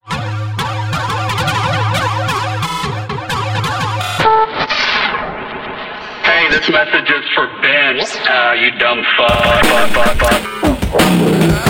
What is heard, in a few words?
voicemail